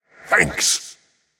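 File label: "Thanks", male; smite; olorun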